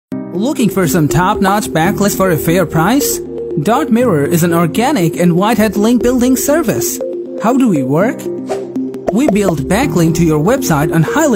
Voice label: digital product promotion